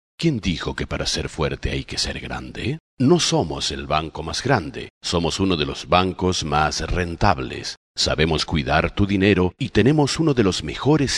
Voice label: Loc. Esp, Mexico; Bco Progreso

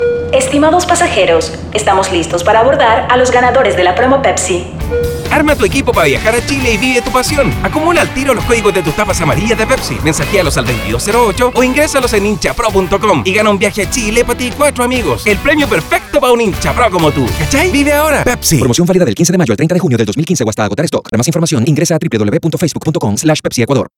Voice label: Versión editada para radio; PEPSI ARMA TU EQUIPO

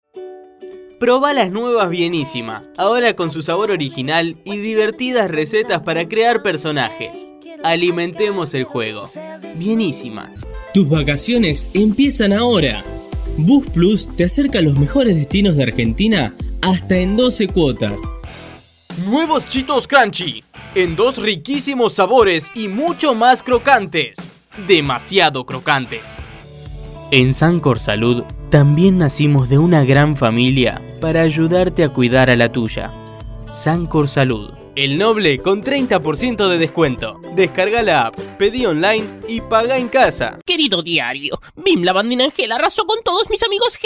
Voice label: Locutor

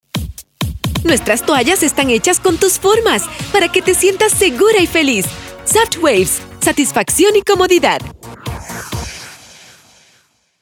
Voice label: Locucion promocional

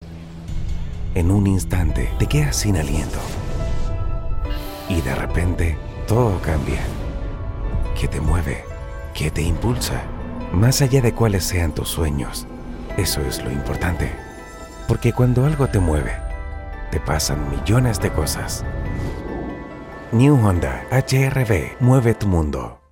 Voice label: versión editada para radio; HONDA HRV

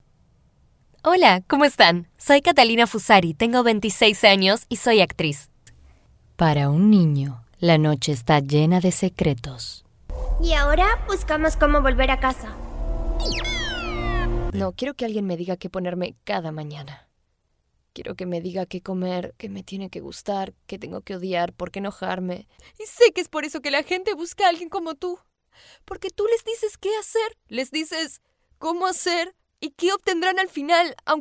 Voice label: DEMO DOBLAJE

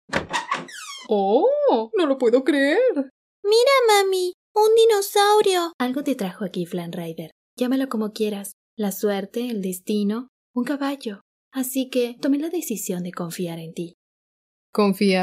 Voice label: Actings en neutro

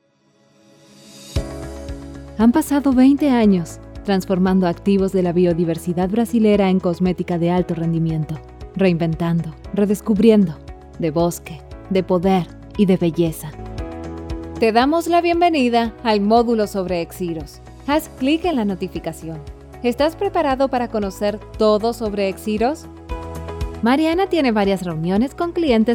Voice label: REEL CORPORATIVO

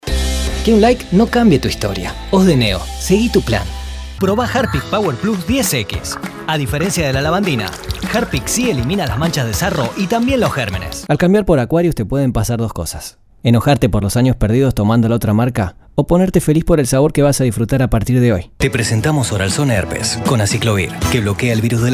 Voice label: ARGENTINO Comerciales

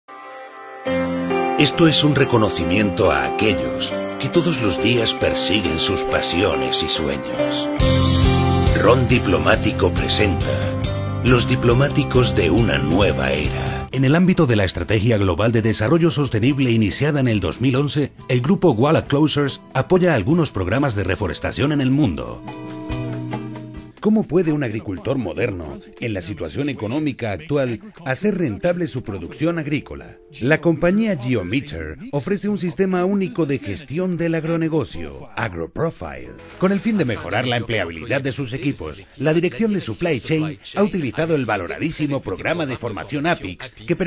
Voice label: Locutor Profesional

